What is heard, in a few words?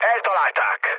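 Hungarian aircraft destroyed mood low, speech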